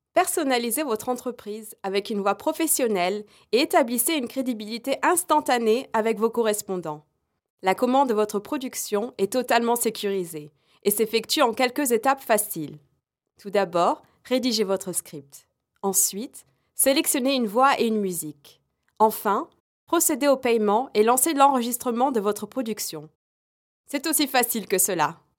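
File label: French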